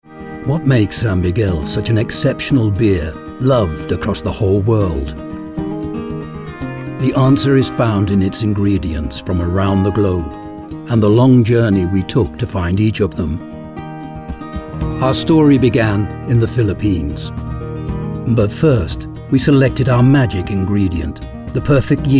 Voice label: male british english drink comercial

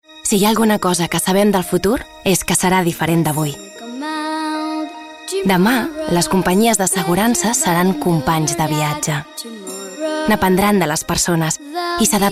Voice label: female catalan insurance comercial; ideasonora

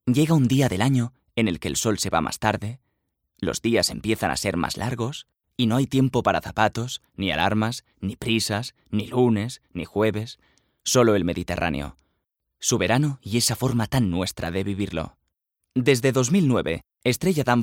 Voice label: male spanish drink comercial; ideasonora

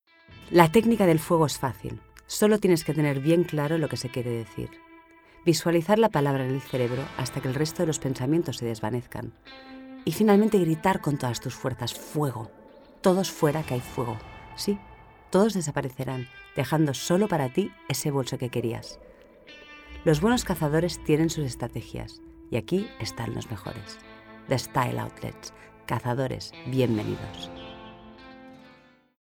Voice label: female spanish outlet comercial

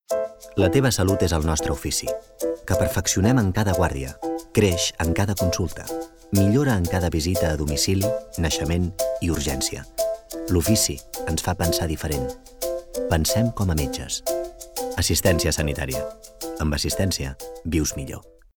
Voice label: male catalan tv comercial

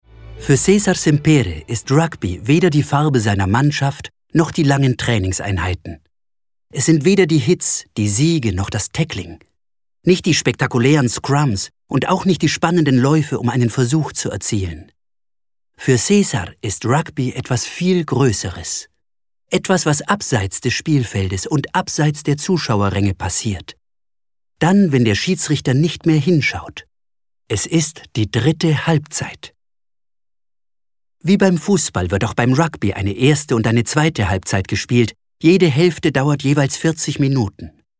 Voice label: male german podcast comercial; ideasonora

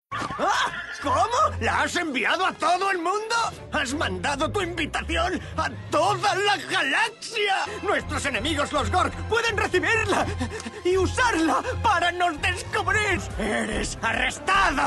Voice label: male spanish cartoon comercial

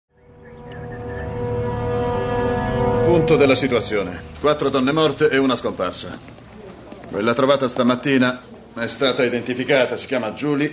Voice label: male Italian tv comercial; ideasonora

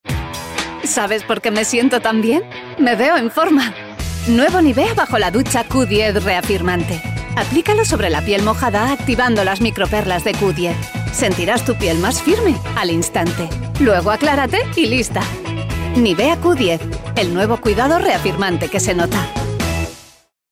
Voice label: female spanish skin care comercial

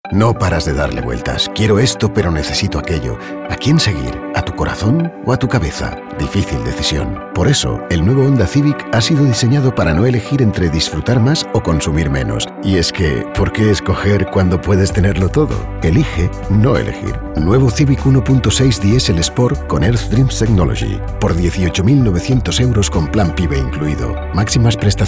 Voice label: male spanish comercial; ideasonora

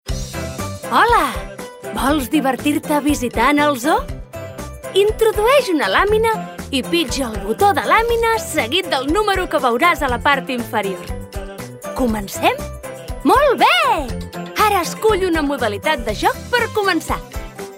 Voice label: female catalan zoo comercial

